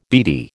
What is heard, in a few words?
"beady", American